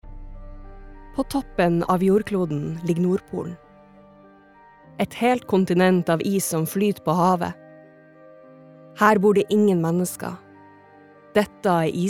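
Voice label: Norwegian documentary, norwegian female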